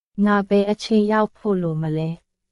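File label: Myanmar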